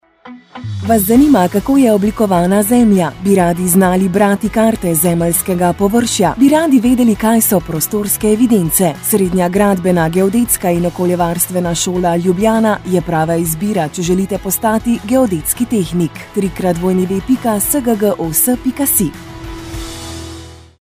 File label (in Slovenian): oglas radio